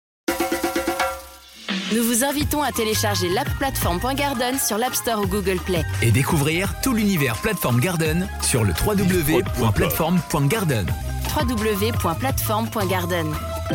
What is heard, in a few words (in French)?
Jingle Telecharger App; Podcast Platform Garden